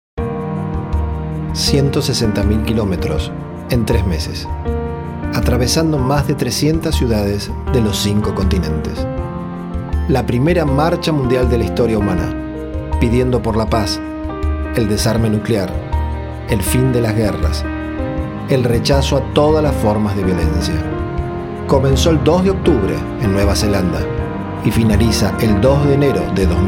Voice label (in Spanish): Musica Locucion